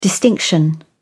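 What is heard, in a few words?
"distinction", British English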